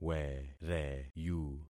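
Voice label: "wereyu", concatenated syllables; Affixing experiment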